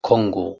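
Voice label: "keh3ngo", Proto-Indo-European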